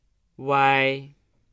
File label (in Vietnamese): "uai", tiếng trung; nguyên âm